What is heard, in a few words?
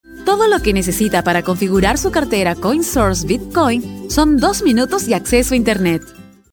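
Spanish, female, explainer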